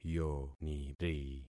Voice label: "yoniri", concat syllables; Affixing experiment

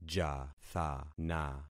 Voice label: "jathana", concat syllables; Affixing experiment

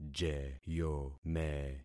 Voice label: "jeyome", concat syllables; Affixing experiment

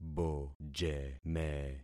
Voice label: "bojeme", concat syllables; Affixing experiment

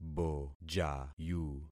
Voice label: "bojayu", concat syllables; Affixing experiment

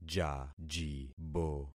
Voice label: "jajibo", concat syllables; Affixing experiment

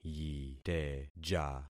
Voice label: "yiteja", concat syllables; Affixing experiment

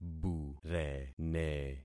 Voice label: "burene", concat syllables; Affixing experiment

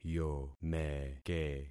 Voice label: "yomeke", concat syllables; Affixing experiment

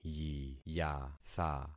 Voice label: "yiyatha", concat syllables; Affixing experiment

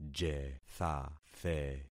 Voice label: "jethathe", concat syllables; Affixing experiment

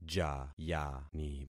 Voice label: "jayani", concat syllables; Affixing experiment

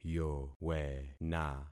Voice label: "yowena", concat syllables; Affixing experiment

